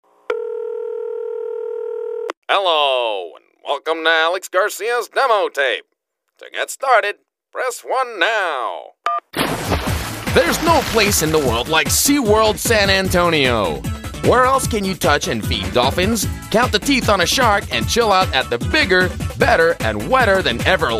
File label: English, english males